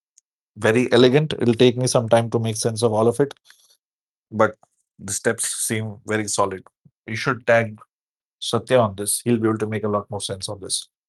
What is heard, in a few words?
voice message; Time and Work (Math)